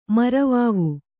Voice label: "ಮರವಾವು", Kannada